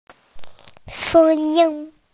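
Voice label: "sheng yin" Chinese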